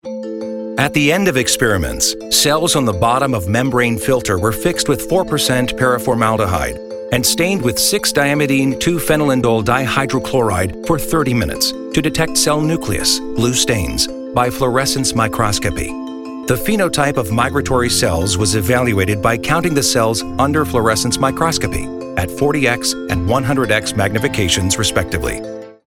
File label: e-learning medical technical medical